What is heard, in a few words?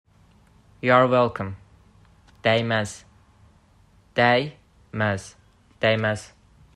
You're welcome. Dəyməz, azerbaijani